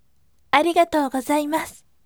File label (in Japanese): voice "arigatou gozaimasu" yasashime